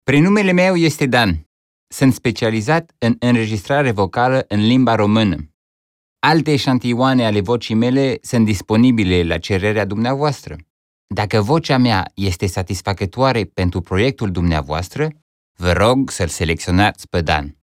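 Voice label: Romanian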